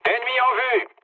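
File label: French enemy detected mood low; War Thunder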